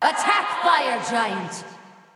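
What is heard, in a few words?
attack female buffed; smite; nike; voicepack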